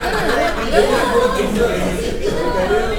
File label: anger closed, 居酒屋; ATR Openhouse 2025